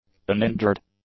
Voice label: "uninjured", English; adjectives

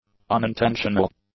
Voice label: "unintentional", English; adjectives

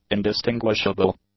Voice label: "indistinguishable", English; adjectives

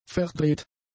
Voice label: "verdreht", German; adjectives

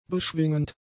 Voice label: "beschwingend", german; adjectives

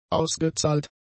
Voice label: "ausgezahlt", German; adjectives

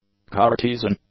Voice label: "Cartesian", English; adjectives

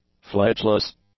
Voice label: "fledgeless", english; adjectives

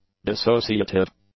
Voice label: "dissociative", English; adjectives

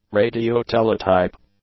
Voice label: "radio-teletype", English; nouns

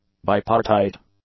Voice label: "bipartite", English; adjectives

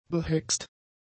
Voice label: "behext", German; adjectives

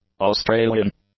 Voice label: "Australian", English; adjectives

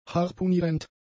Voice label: "harpunierend", German; adjectives

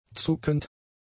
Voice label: "zuckend", German; adjectives

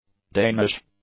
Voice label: "Danish", english; adjectives

